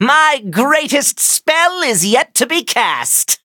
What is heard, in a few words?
level up, en